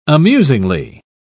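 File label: "amusingly", British English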